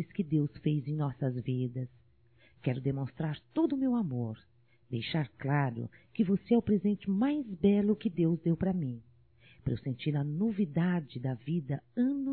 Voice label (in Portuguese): NATAL ROMANTICO; Natal e ano novo; fem; Telemensagens 2017